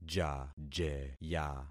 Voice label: "jajeya", concat syllables; Affixing experiment